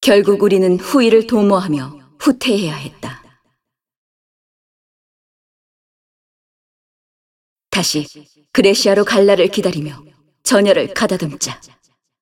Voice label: cinematic voice; lineage2

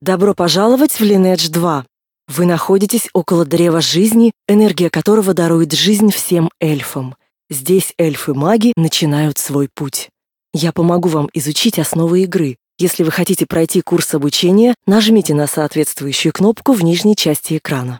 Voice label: tutorial voice ru; lineage2

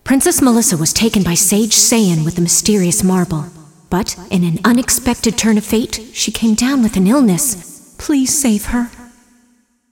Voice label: cinematic voice; lineage2

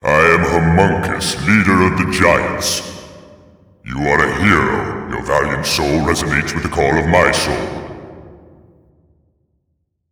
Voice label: cinematic voice; lineage2

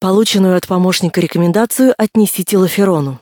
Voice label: tutorial voice, ru; lineage2

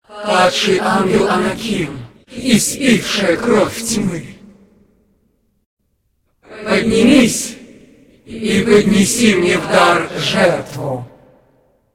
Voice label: cinematic voice, ru; lineage2

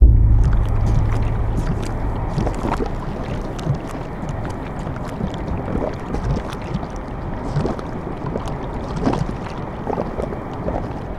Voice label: cinematic voice; lineage2

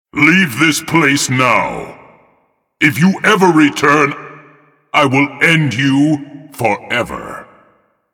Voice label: cinematic voice; lineage2

